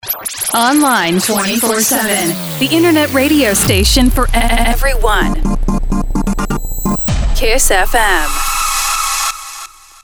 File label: Imaging